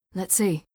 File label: Female; Skyrim Special Edition; Dawnguard Beleval